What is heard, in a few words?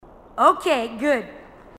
marine tough